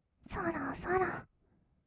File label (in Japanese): "さらさら", kawaii voice; ASMR; 色々なワンショット